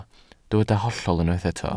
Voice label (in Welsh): "hollol" Welsh